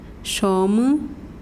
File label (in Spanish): "noche", mazahua